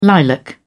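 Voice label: "lilac", British English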